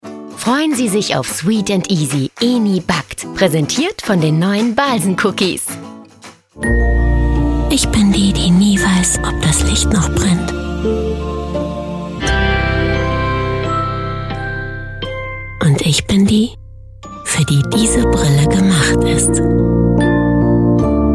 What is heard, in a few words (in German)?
werbung, deutsch frauen; sprecher